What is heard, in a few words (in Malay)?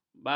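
"balas", Malay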